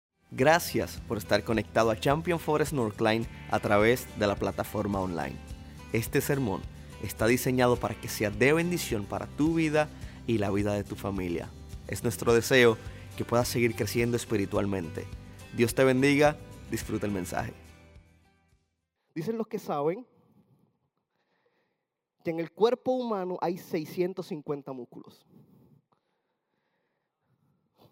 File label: Sermon Spanish